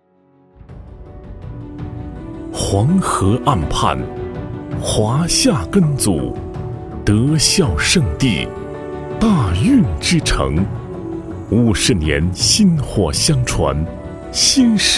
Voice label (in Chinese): 男 学校专题; 运城护理职业学院